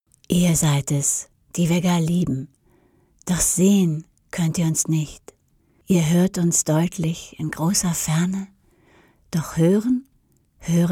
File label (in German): poetisch, frauen